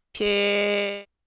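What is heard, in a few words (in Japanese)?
"ぴぇ", Japanese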